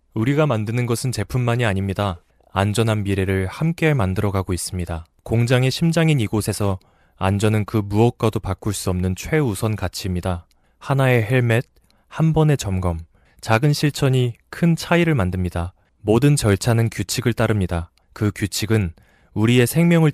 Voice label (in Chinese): 韩国男声